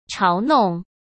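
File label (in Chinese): "嘲弄", Mandarin Chinese; chinese flashcards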